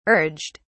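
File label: "urged", British English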